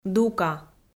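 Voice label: "дука", Komi, female; kpv audio dict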